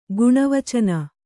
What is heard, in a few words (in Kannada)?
"ಗುಣವಚನ", Kannada